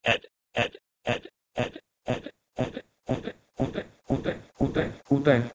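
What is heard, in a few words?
Proto-Indo-European h1ed to Armenian utel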